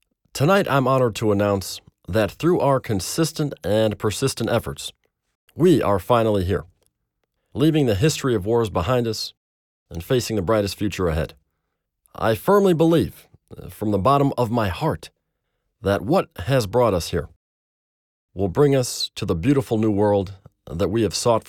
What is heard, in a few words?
Character; Obama